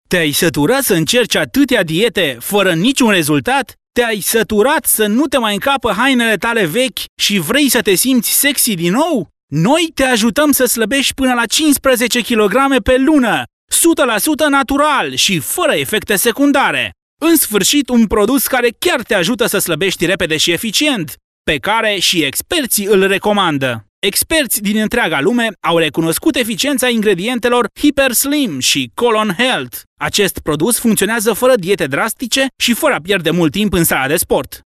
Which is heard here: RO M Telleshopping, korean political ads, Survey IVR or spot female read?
RO M Telleshopping